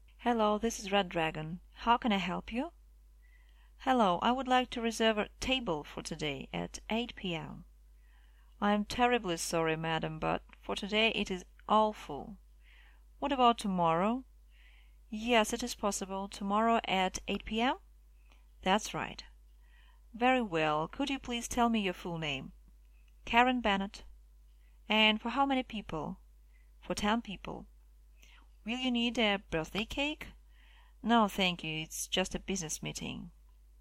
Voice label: phone talk